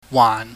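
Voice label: "waan", Cantonese, low falling tone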